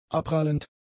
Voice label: "abprallend", German; adjectives